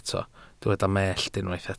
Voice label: "mellt", welsh